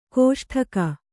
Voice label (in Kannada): "ಡಿಂಗು", Kannada